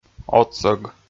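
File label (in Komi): "отсӧг", Komi, male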